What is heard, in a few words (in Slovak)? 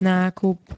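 "nákup", Slovak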